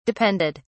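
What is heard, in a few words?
"depended", British English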